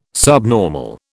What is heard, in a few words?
"subnormal", British